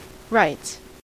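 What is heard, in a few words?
"writes", US English; pronunciations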